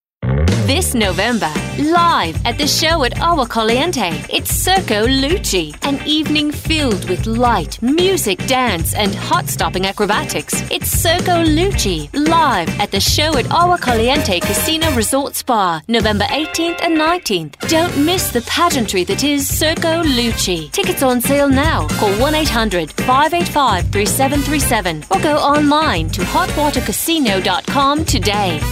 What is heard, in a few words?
Accent British